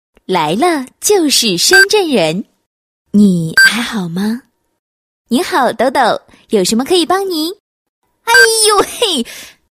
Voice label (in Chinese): 女 广告; 来了就是深圳人